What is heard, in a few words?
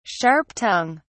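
"sharp tongue", British English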